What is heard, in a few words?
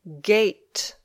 "gate", English